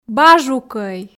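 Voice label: "бажукӧй", Komi, female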